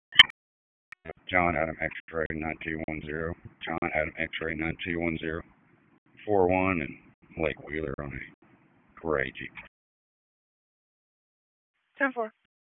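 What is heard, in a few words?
Sheriff's Office Dispatch; WSO DISP 1; wake p25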